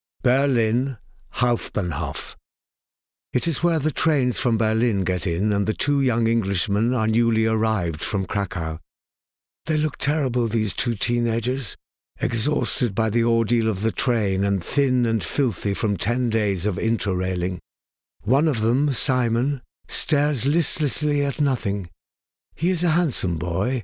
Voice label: Audiobook; AdVoice